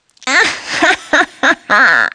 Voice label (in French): SORCIERE; Mobiclic46